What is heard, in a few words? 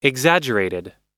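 "exaggerated", US English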